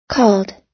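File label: "called", American English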